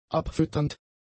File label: "abfütternd", German; adjectives